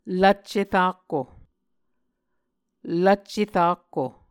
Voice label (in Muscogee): "lvcce rakko", Muscogee; Mvskoke Words with Repeated Consonants; Onvkv Ohhvyayices; A Dictionary of Creek/Muskogee; SIL International